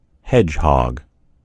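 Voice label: "hedgehog", American English; gcompris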